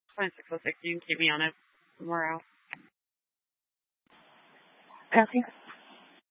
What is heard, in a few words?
Sheriff Dispatch, scanner; Mesa County